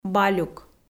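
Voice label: "балюк", Komi-Zyrian, female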